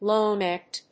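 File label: "low-necked", English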